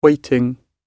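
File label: "waiting", British English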